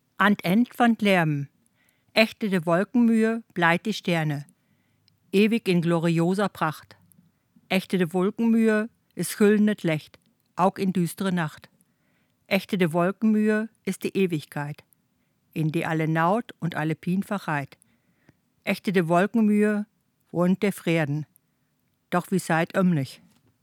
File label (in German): Platt; Friedhof; Plattdeutscher Weg; Nottuln